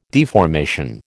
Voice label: "deformation", American